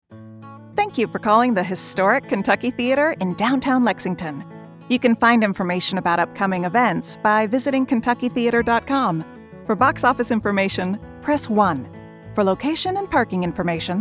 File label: Telephony